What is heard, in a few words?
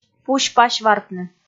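"пусь-пасьвартны", Komi, female; kpv audio dict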